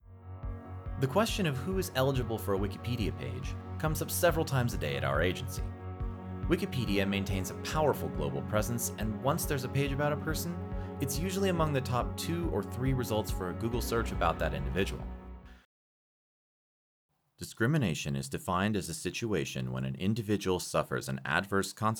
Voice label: E-Learning or Company Training Demo Reel

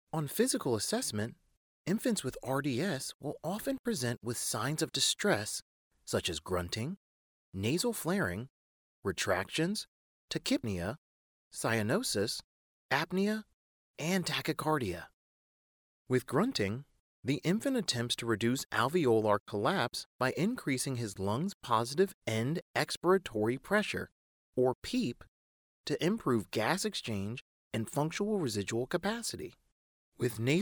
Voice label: Medical Narration Sample

